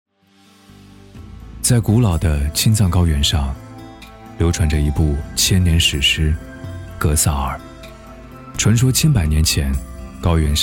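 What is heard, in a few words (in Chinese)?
男国大音-记录片; 《寻找天堂之门》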